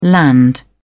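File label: "land", British English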